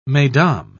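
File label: "mesdames", British English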